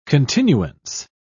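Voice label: "continuance", British English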